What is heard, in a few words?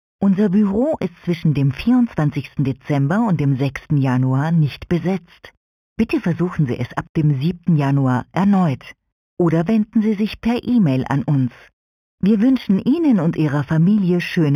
Neutral